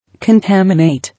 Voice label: "Contaminate", English

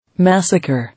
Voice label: "Massacre", English

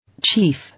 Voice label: "Chief", English

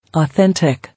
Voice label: "Authentic", English

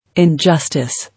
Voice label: "Injustice", English

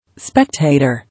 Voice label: "Spectator", English

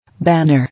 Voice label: "Banner", English

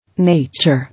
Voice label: "Nature", English

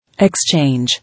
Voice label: "Exchange", English

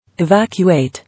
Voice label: "Evacuate", English

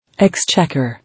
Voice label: "Exchequer", English

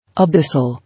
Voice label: "Abyssal", English

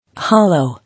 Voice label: "Hollow", English

